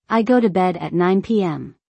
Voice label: I go to bed at 9 PM., English; Time and Routine